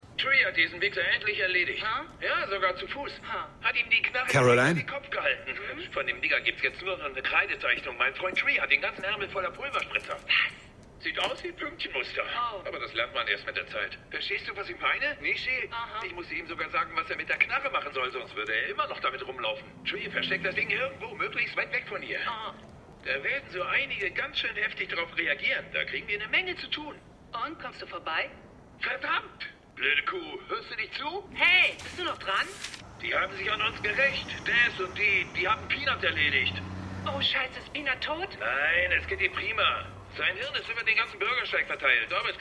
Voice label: Abgehoerte Stimme; The Wire

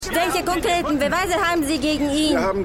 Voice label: Reporterin, Stimmproben

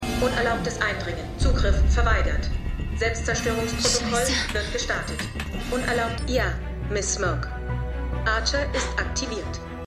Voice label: Computerstimme; Felicitys; Archer; Arrow

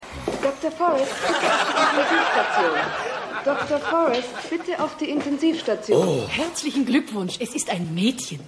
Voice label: Durchsage Krankenschwester; Stimmproben

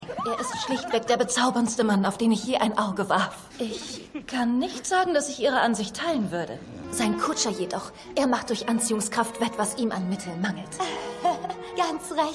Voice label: Frau, Stimmproben

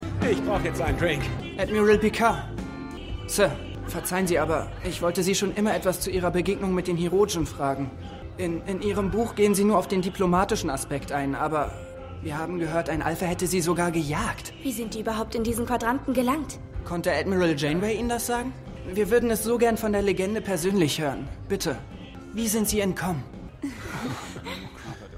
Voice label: Mann Kadett Kadettin; Star Trek Picard

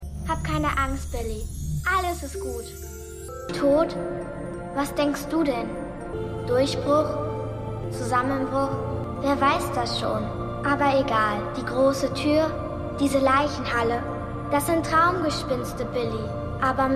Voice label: Kind; Katrina Boerg; Falling Water

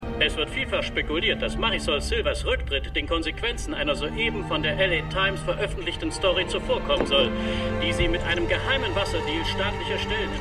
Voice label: Nachrichtensprecher; Goliath

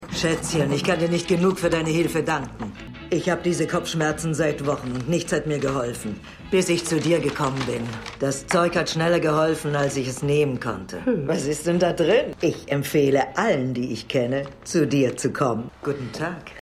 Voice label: Aeltere Frau; Luke Cage

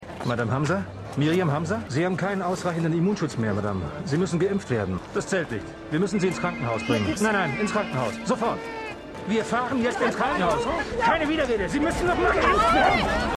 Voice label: Mann Schutzanzug, Stimmproben; Liaison

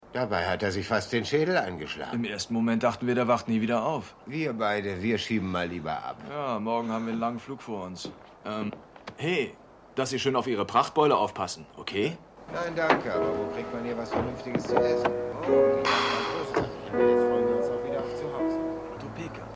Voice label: Mann Aus Kansas; AusgerechnetAlaska

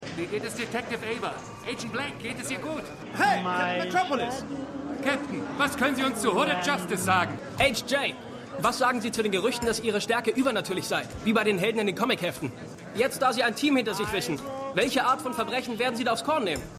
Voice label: Mehrere Reporter; Stimmproben; Watchmen

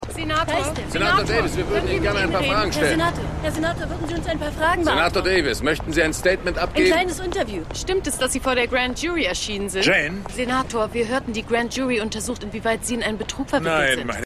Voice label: Reporter; Reporterin Jayne Miller; The Wire

